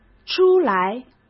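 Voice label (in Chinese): "出来", Mandarin Chinese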